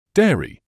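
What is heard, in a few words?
"dairy", British English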